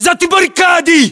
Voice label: order cover barricade, ru; localized english

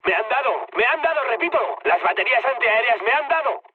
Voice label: damaged aa, mood med, speech; War Thunder

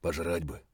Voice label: mutter, voice; Escape from Tarkov

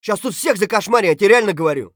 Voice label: scav mutter, voice; Escape from Tarkov; scav3

